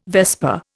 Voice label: "vesper", Indian

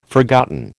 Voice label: "forgotten", American

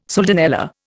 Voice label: "soldanella", Indian

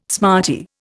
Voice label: "smarty", Indian

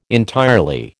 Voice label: "entirely", American

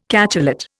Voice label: "cachalot", Indian

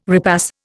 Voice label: "repass", Indian

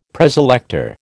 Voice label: "Preselector", American

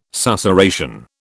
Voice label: "susurration", British

